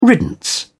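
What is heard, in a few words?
"riddance", British English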